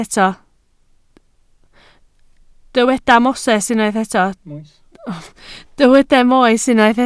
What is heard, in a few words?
"moes", Welsh